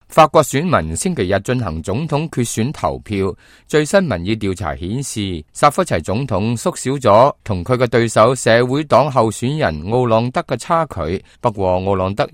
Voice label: cantonese, news